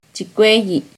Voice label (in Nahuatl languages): "chicueyi", Náhuatl; ocho; NAHUATLAHTOLLI